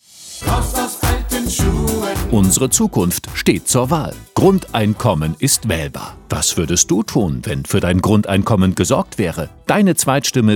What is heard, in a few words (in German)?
Radio Wahlwerbespot; 44,1kHz 16bit PCM; Bündnis Grundeinkommen